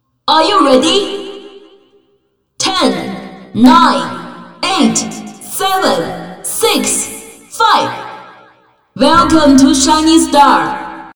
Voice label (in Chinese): 女 动漫 机械电音